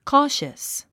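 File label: "cautious", English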